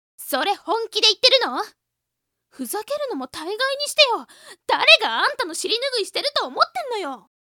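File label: woman angry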